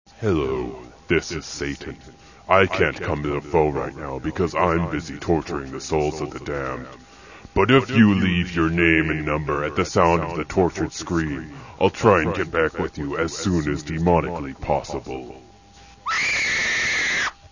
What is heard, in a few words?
Answering Machine Message; Attention Deficit Theater; Satan's Answering Machine Message